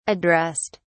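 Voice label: "addressed", British English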